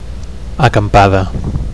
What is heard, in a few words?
"acampada", Catalan